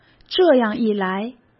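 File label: "这样一来", Mandarin Chinese; hsk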